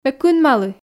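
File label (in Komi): ме кынмалі, Komi, female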